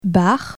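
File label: "bar", French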